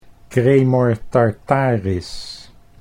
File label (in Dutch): "cremor tartaris", Dutch